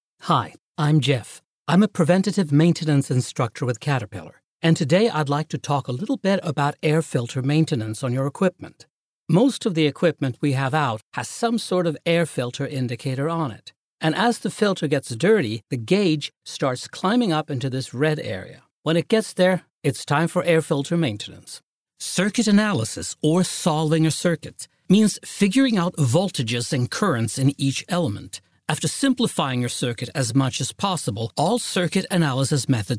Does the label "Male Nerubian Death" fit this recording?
No